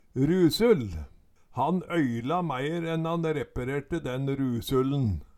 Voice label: rusul, Numedalsmål

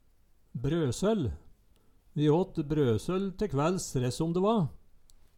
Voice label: "brøsøll", Numedalsmål

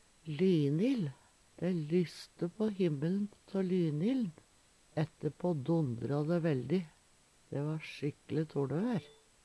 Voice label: "lynill", Numedalsmål

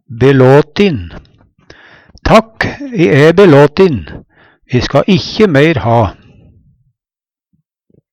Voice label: belatin, Numedalsmål; Takk, e æ belåtin, ska ikkje meir ha.; Adjektiv

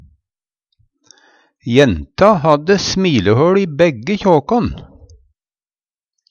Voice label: kjakje, Numedalsmål

